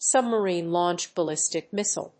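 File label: "submarine launched ballistic missile", English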